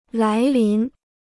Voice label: "来临", Chinese

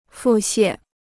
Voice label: "腹泻", Chinese

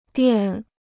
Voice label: "店", Chinese

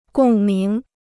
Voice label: "共鸣", Chinese

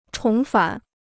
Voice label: "重返", Chinese

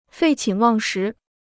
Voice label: "废寝忘食", Chinese

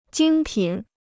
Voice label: "精品", Chinese

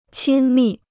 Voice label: "亲密", Chinese

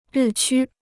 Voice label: "日趋", Chinese

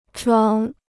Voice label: "床", Chinese

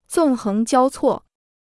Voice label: "纵横交错", Chinese

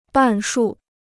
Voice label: "半数", Chinese

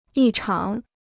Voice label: "异常", Chinese

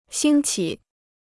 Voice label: "兴起", Chinese

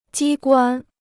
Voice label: "机关", Chinese

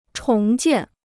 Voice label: "重建", Chinese

